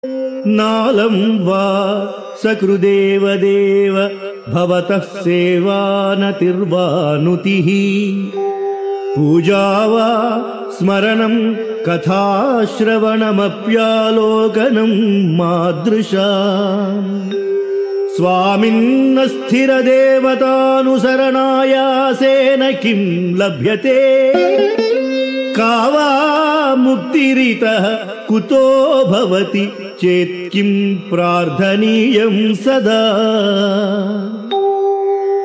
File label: Sanskrit; Sri Adi Sankaracharyas Sivananda Lahari; Adi Shankaracharya; श्रीशिवानन्दलहरी ३३